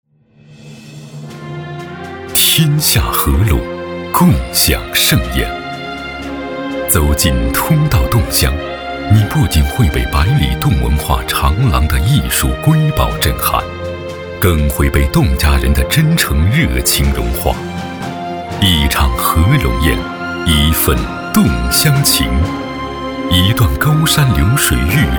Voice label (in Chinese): 男 纪录 片 大气浑厚; 舌尖; 刨汤肉